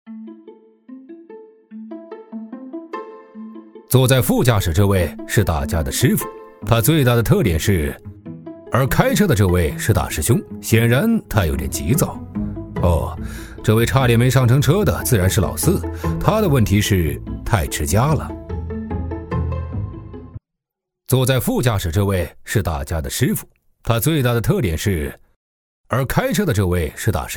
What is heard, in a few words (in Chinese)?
男 影片解说 轻松调侃 搞笑; 新“嘻游记 ”; 中英双语; 年轻舒缓